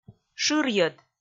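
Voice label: "шырйӧд", Komi, female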